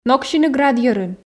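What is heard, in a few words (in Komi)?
ноксьыны град йӧрын, Komi, female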